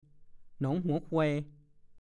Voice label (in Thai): "หนองหัวควย", ภาษาโคราช; ชื่อหมู่บ้านในอำเภอปักธงชัย ปัจจุบันเปลี่ยนเป็นศีรษะกระบือ